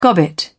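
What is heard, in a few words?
"gobbet", British English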